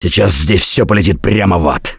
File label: reaction negative, russian; Gambler